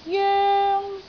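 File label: "joeng1", Cantonese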